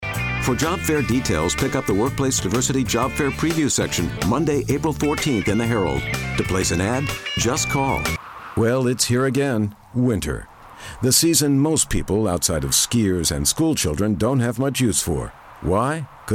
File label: commercial